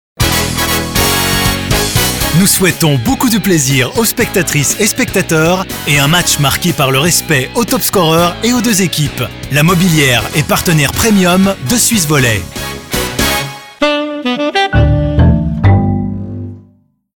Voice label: Presenter; Die Mobiliar; Swiss Volley Ball 2018